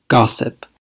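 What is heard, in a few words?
"gossip", US English; pronunciations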